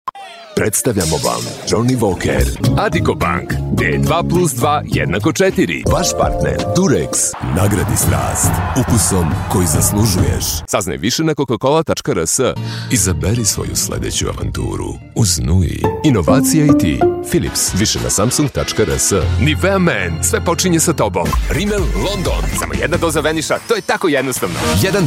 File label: TV, serbian